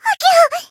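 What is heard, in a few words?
damaged, Japanese; nekoko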